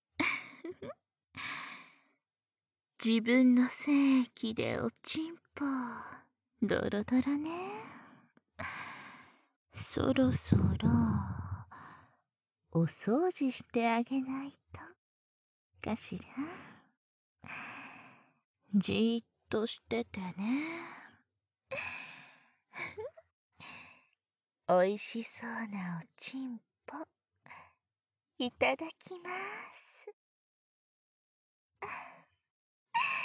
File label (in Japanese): ブレインマッサージ＆フェラ（バイノーラルマイク 効果音あり）, ASMR